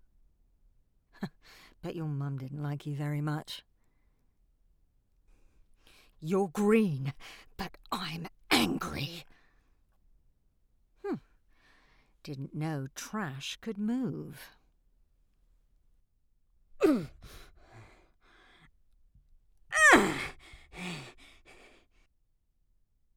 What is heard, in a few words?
Recruit Female